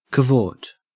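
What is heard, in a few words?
"cavort", Australian English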